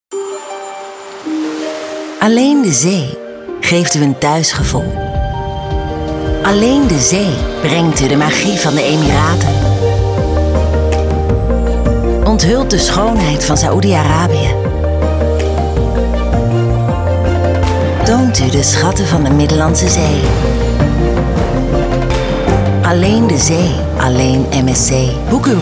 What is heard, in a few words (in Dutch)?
NL mysterieus